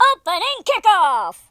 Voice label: yes sir, speech, US Sports